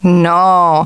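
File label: "no", female; TALKNOW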